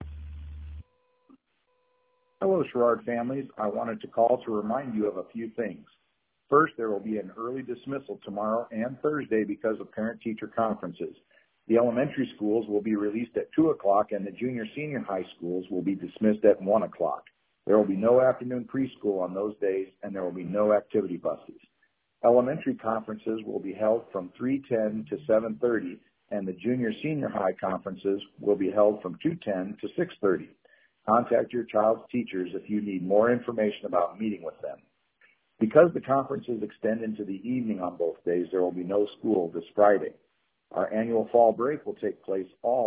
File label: voicemail